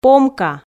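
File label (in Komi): "помка", Komi, female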